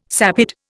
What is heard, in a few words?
"sapid", Indian